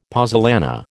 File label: "pozzolana", American